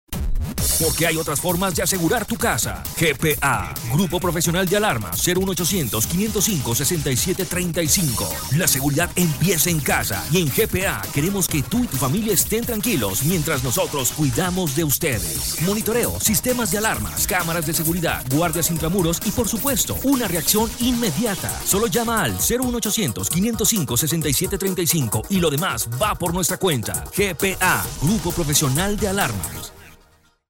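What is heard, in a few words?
Latin American Spanish, male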